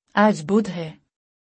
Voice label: Today is Wednesday. - Urdu; Time and Routine